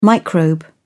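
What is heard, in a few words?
"microbe", British English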